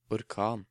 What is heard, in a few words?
"Orkan", Norwegian